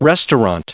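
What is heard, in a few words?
"restaurant", US English; restaurant table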